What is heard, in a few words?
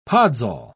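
"podzol", British English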